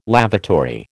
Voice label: "lavatory", American

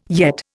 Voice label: "yet", Indian

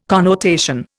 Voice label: "connotation", Indian

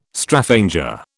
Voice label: "Straphanger", British

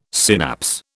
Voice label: "synapse", British

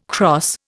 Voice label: "cross", Indian

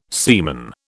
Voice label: "seaman", British

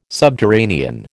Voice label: "subterranean", American

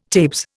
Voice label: "tabes", Indian

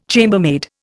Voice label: "chambermaid", Indian

